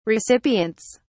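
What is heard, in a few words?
"recipients", British English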